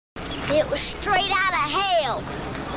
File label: child preacher